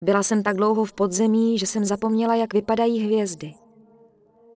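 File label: Human Female Pissed, Czech; wowpreklad; czwow; HumanVocalFemale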